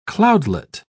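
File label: "cloudlet", British English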